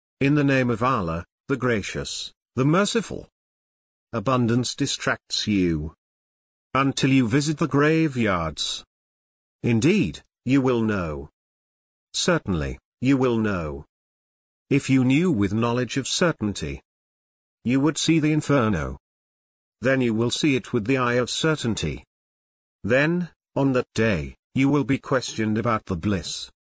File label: Quran Sura 102, Quran English translation; Competing for Worldly Gain; Al Takathur